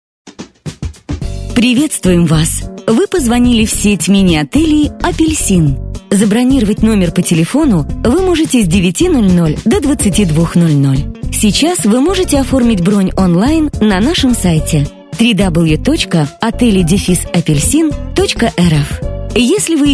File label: golosovoe privetstvie ivr; Avtootvetchik